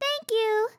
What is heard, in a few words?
healed, RPG Voice; RPG Voice Starter Pack